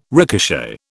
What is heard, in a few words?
"ricochet", British